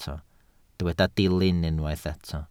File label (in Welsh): "dilyn" Welsh